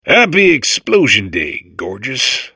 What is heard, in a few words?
babble; portal2